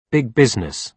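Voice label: "big business", British English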